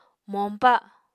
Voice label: "moñpa", Apatani